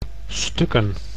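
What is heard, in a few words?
"stukken", Dutch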